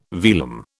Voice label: "velum", British